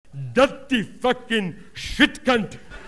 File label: swear sample